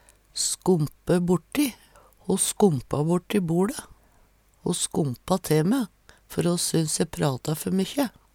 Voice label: "skompe borti", Numedalsmål

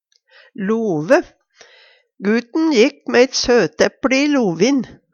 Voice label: love, Numedalsmål; Substantiv hankjønn

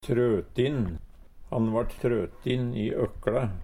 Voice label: "trøtin", Numedalsmål; Adjektiv

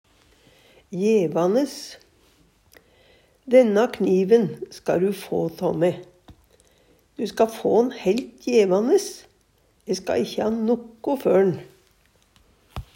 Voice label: jevanes, Numedalsmål

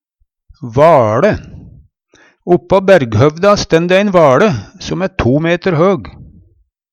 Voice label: vaLe, Numedalsmål; Oppå Bærghøvda stænn dæ ein vaLe som æ to meter høg.; Substantiv hankjønn

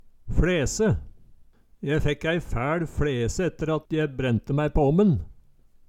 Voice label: fLese, Numedalsmål

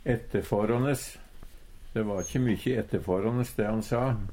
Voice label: "ettefårånes", Numedalsmål; De va kje mykjy ettefårånes de han sa.

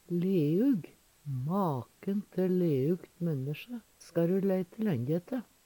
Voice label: leug, Numedalsmål; Veggli; Adjektiv

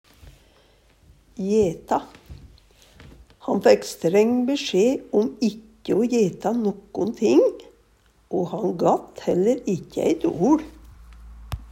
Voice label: jeta si, Numedalsmål

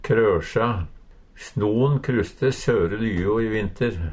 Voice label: krosja, Numedalsmål; knuse, klemme saman; Uttrykk

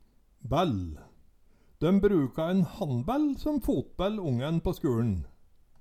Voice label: "bæll", Numedalsmål

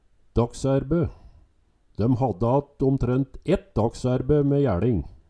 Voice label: "daksaerbe", Numedalsmål

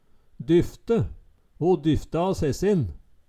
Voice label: "dyfte", Numedalsmål

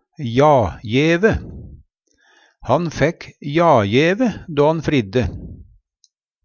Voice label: jagjeve, Numedalsmål

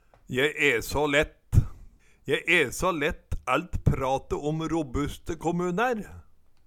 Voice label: je e så lett, Numedalsmål

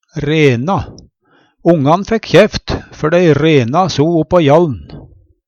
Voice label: rena, Numedalsmål; Ongan fækk kjæft, før dei rena so oppå jall'n.; Verb

